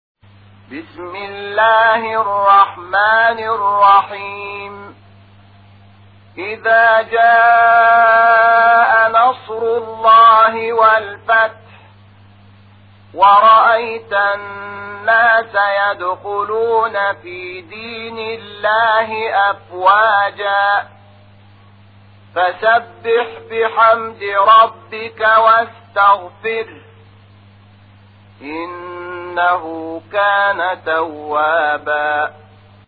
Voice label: ترتیل قرآن کریم سوره نصر; محمود شحات انور

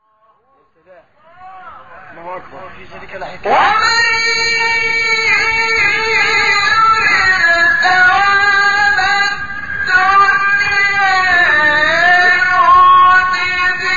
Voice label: تلاوت آیه 145 سوره آل عمران; استاد شحات انور

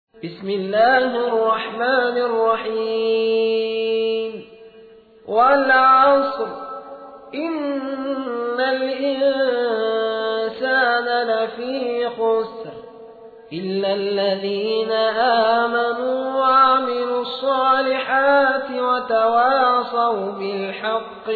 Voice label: ترتیل; سوره عصر

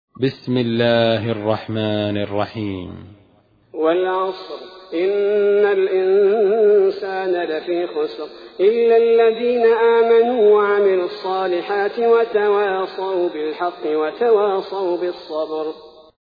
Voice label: ترتیل سوره عصر; الثبیتی

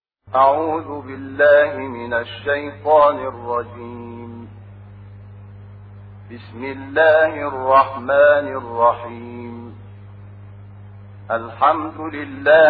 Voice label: ترتیل قرآن کریم سوره حمد; محمود شحات انور

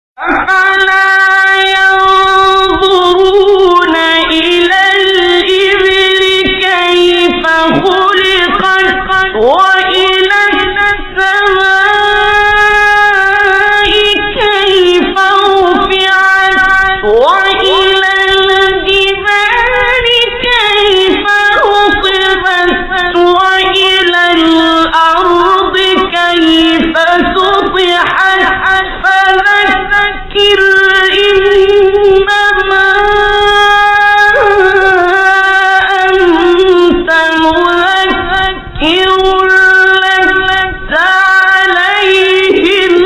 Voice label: فرازی زیبا از تلاوت سوره غاشیه; استاد عبدالباسط

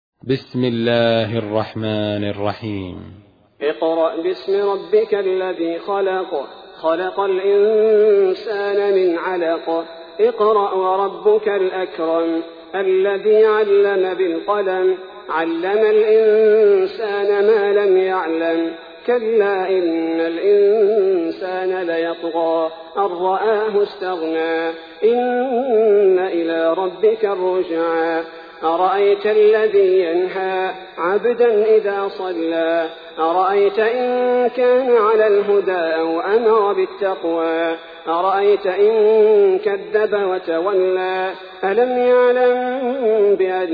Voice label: ترتیل سوره علق; الثبیتی

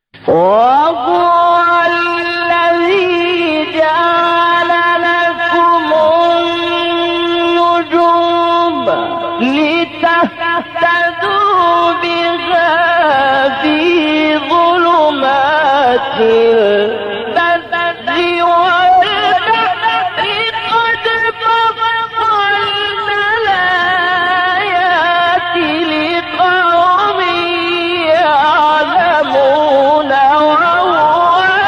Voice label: فرازی ملکوتی از تلاوت سوره مبارکه انعام; استاد اللیثی

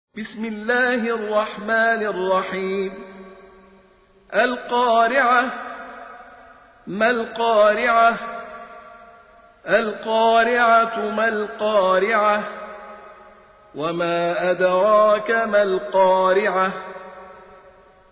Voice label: ترتیل; سوره قارعه

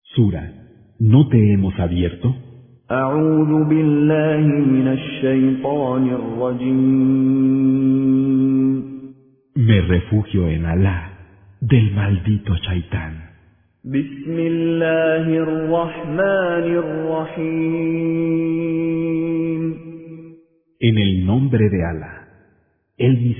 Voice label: ترجمه گویای قرآن به زبان اسپانیایی; با تلاوت مشاری العفاسی; سوره انشراح

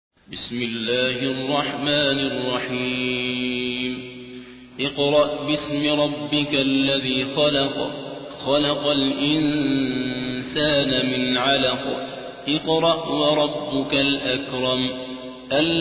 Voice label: ترتیل; سوره علق

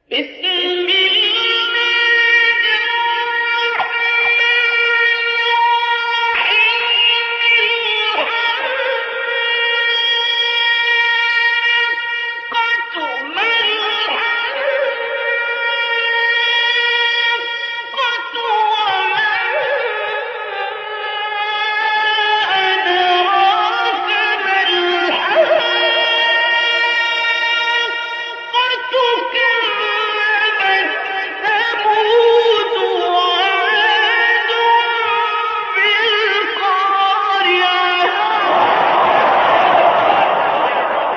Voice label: نوای ملکوتی تلاوت آیات ابتدایی سوره مبارکه الحاقه; استاد عبدالباسط

